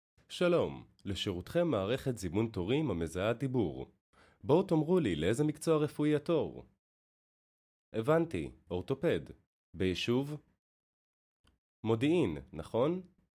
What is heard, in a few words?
intro IVR